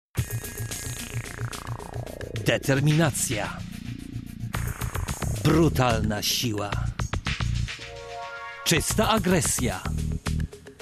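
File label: Polish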